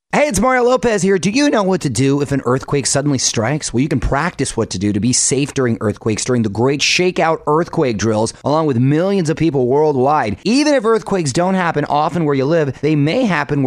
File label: PSA; ShakeOut; Montana